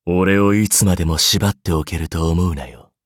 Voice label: upgrade, ja; cookie run